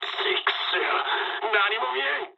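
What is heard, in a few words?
searchlite mood low, speech japanese; War Thunder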